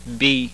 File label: front unrounded, norwegian